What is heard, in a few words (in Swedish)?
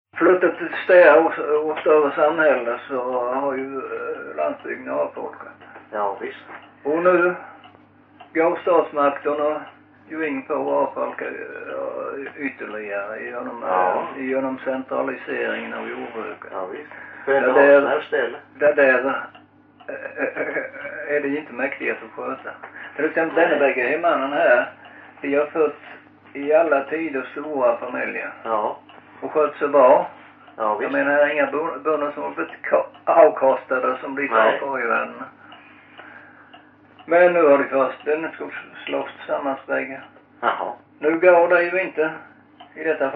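Loshult Skåne, dialekter; Folkminnen; Svenska sagor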